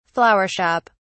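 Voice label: "flower shop", British English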